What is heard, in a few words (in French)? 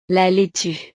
"la laitue", frances; verduras